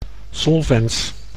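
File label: "solvens", Dutch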